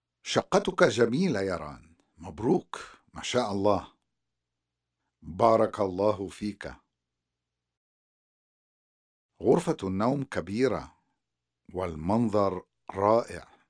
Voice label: Conversation; SALAMAAT